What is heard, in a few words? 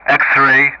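"X-ray", Russian; Alphabet; DCS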